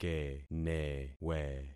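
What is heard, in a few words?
"kenewe", concatenated syllables; Affixing experiment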